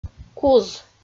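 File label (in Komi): "куз", Komi-Zyrian, female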